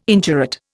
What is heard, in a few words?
"indurate", Indian